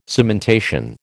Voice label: "cementation", American

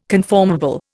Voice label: "conformable", Indian

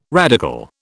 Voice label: "radical", British

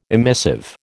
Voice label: "emissive", American

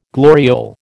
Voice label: "gloriole", American

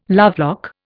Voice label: "lovelock", Indian

